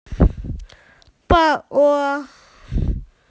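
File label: "pineapple", Mandarin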